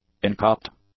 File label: "incorrupt", English; adjectives